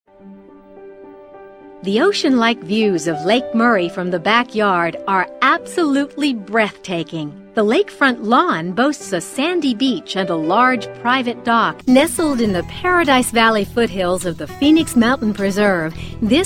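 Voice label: real estate, female voice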